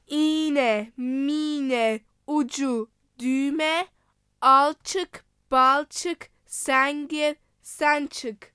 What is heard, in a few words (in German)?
tuerkisch